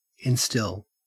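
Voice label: "instill", Australian English; pronunciations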